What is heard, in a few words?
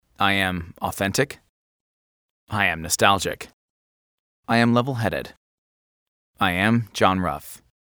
men mini demo